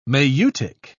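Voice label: "maieutic", British English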